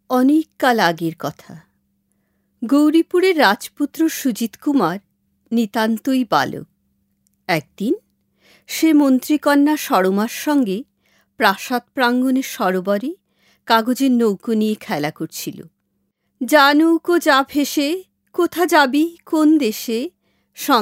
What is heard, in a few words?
story